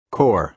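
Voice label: "core", American English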